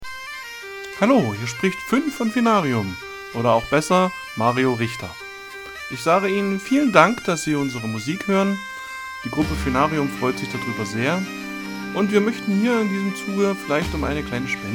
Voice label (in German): Spenden-Werbung